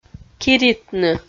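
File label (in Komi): "киритны", Komi, female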